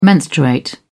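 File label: "menstruate", British English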